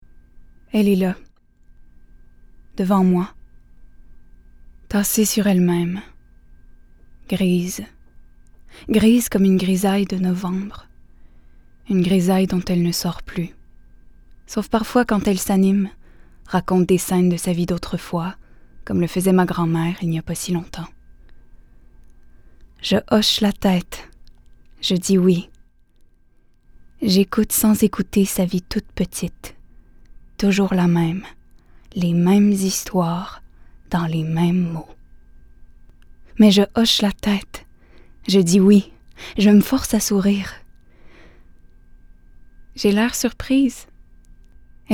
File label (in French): Narration Livre audio; Extrait de Tout comme elle